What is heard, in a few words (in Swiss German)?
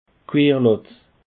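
"querlatt", Bas Rhin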